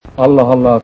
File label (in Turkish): "Allah Allah", sesleri; kemal sunal